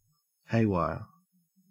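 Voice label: "haywire", Australian English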